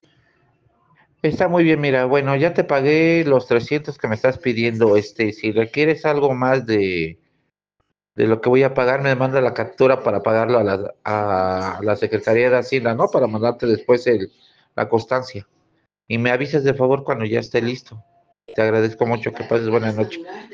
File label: whatsapp ptt